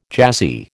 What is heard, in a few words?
"jazzy", American